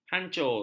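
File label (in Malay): "hancur", Malay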